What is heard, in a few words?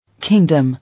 "Kingdom", English